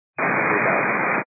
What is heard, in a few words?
122 925MHz, scanner radio